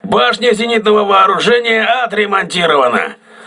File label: voice message aa turret repaired, russian